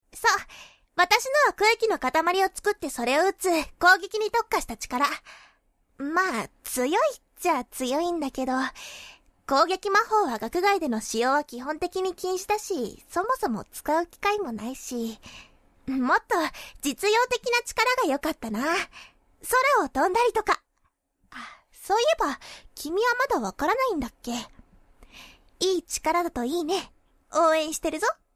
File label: girl; 少女; 学園能力ものヒロイン